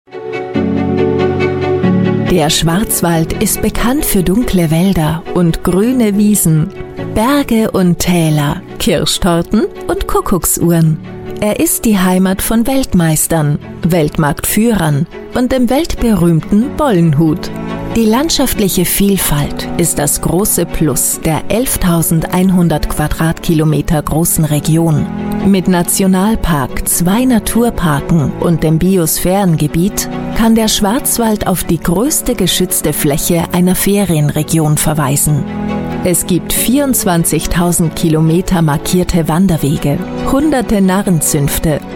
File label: german voice over female narrator